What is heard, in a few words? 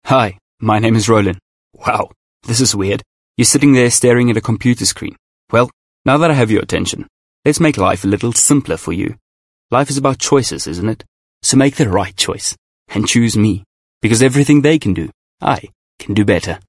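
ENSA AccEn, South African English; GoLocalise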